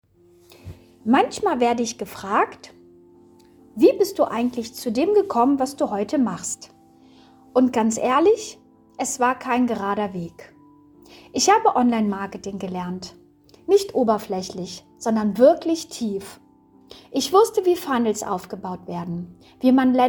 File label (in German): Ueber Mich Voice Over